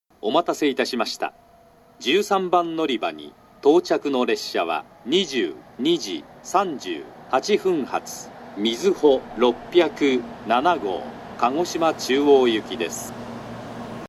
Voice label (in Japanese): 13番のりば接近放送, 新幹線ホーム; 新鳥栖; みずほ607号 鹿児島中央行き